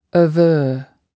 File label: "aver", spoken